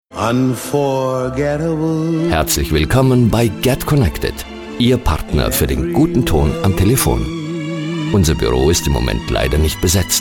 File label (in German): Sprecher Nacht